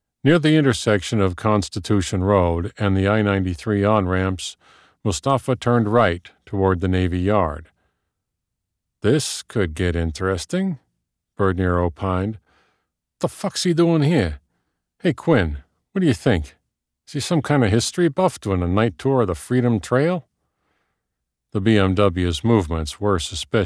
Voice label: Thriller Boston accent dialogue 3rd POV, audiobook